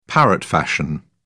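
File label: "parrot fashion", British English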